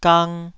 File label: "gang2", Taiwanese